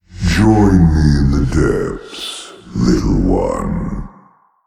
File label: Male Old God START